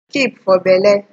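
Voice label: "keep for belle", Nigerian Pidgin, female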